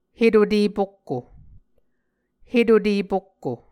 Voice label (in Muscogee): "hetute pokko", Muscogee